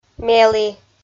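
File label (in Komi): "мелі", Komi, female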